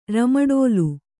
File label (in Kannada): "ರಮಡೋಲು", Kannada